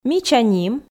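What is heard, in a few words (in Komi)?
мича ним, female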